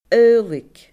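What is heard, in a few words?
"adhlaic", Scottish Gaelic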